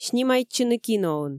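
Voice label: "снимайтчыны киноын", Komi, female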